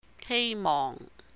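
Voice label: "hèi mohng", hope, wish, Cantonese; 希望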